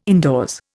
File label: "indoors", Indian